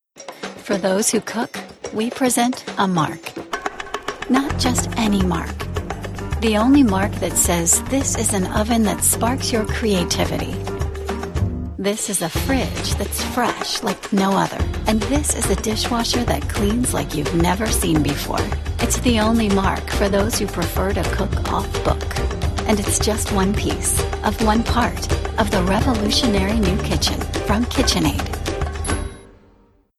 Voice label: Confident Inviting National TV

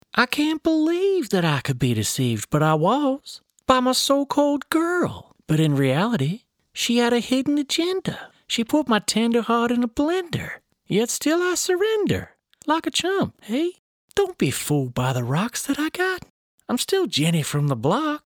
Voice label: Impersonations, male voice; Owen Wilson Dialogue

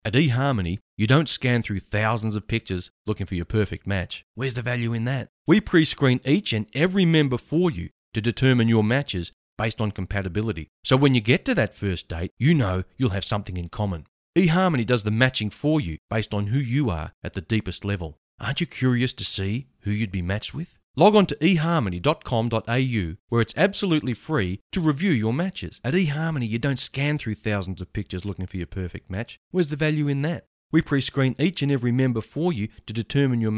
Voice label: Australian Advert

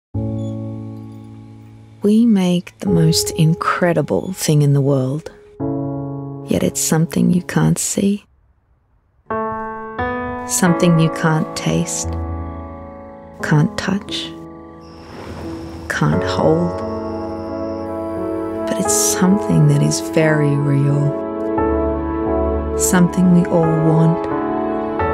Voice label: Emotive and intimate

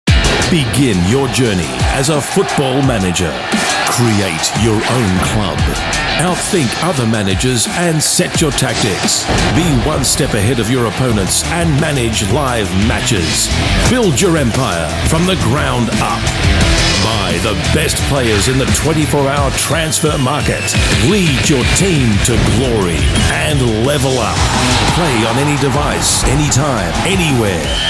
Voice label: trailer; GooglePlay

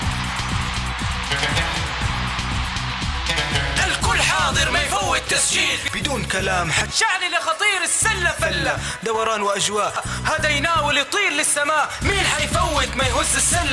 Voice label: Basketball rap; Saudi Championship for Basketball

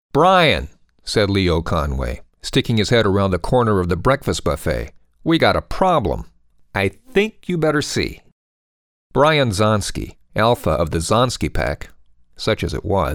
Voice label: Audio Book

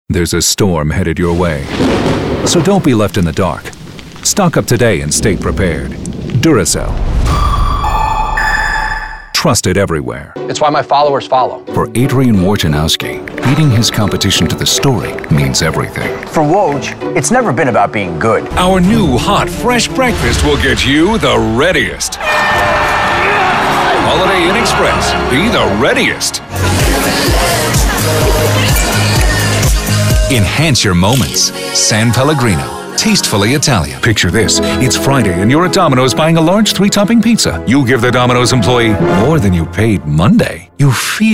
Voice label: National Commercial

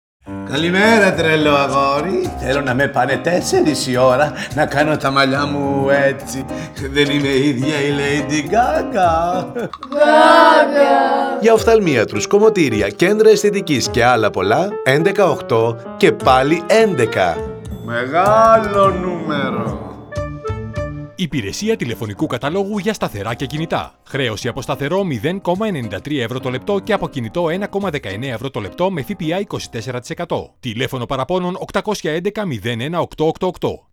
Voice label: RADIO

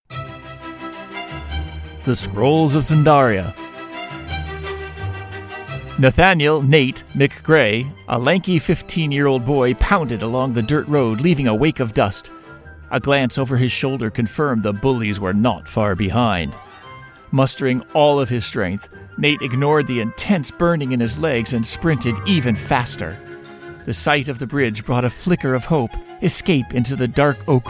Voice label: audio book trailer; Scrolls of Zindaria

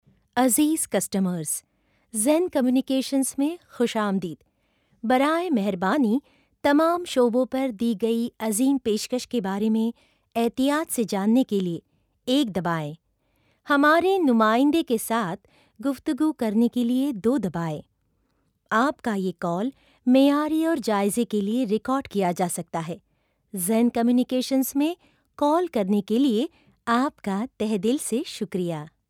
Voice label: Urdu IVR raw

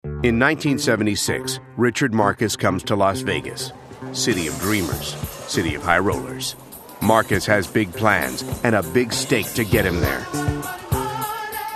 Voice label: Narrations Voice Over

